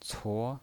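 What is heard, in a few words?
"cuo", Mandarin Chinese pinyin voice, second tone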